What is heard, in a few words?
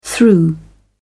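"through", British English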